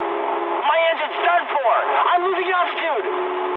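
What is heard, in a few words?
English damaged engine mood high; War Thunder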